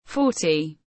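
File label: "forty", English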